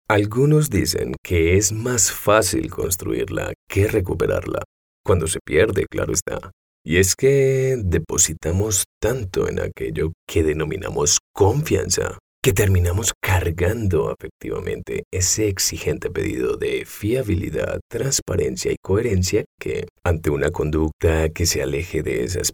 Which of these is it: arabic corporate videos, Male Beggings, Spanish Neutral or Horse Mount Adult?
Spanish Neutral